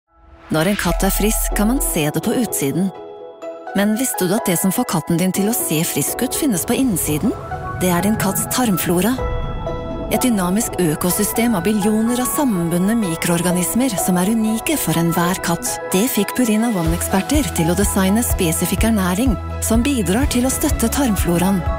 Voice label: norwegian explainer videos; Vídeos explicativos